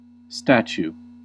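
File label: "statue", American English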